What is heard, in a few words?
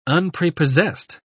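"unprepossessed", British English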